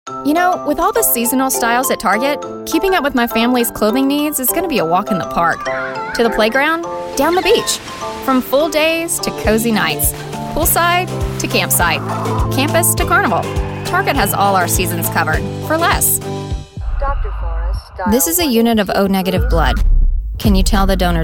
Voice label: english american commercial

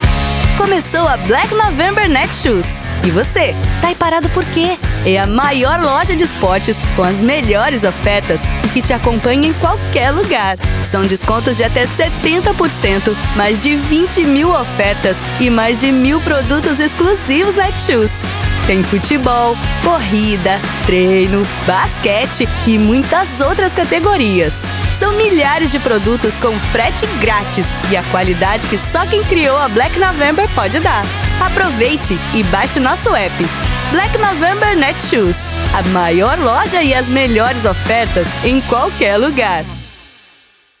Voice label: portuguese brazilian commercial

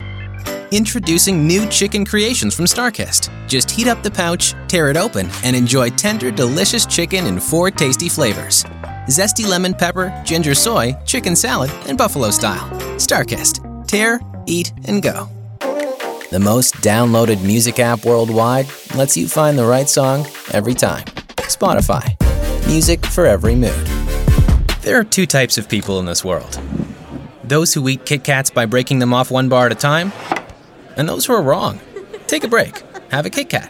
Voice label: english canadian commercial

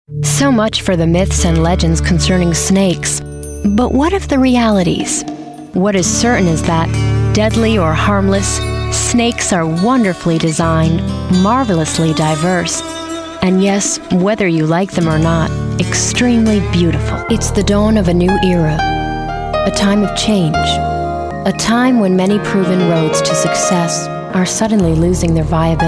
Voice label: english american narration

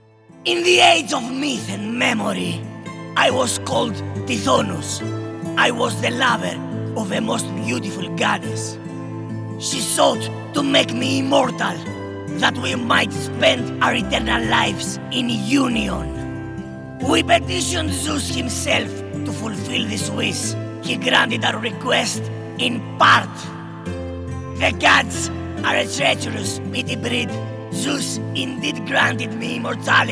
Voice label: english animation

